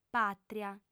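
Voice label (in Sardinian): "pàtria", female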